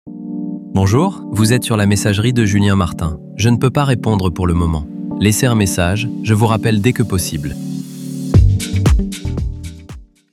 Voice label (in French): exemple repondeur personnalise